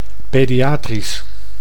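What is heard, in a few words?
"pediatrisch", Dutch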